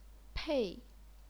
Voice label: "pei4", chinese pinyin

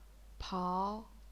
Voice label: "pao2", chinese pinyin

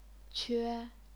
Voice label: "que1", chinese pinyin

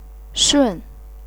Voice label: "shun4", chinese pinyin

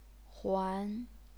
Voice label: "huan2", chinese pinyin

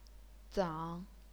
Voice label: "zang3", chinese pinyin

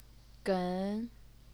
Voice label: "gen2", chinese pinyin

